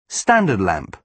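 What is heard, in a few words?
"standard lamp", British English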